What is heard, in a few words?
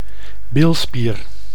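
"bilspier", Dutch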